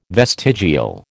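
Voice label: "vestigial", American English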